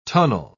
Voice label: "tunnel", pronounce